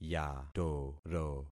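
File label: "yatoro", concatenated syllables; Affixing experiment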